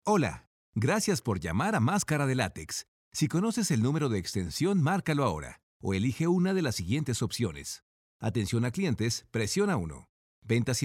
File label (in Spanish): mensaje para conmutador